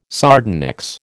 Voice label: "sardonyx", American